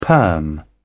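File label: "perm", British English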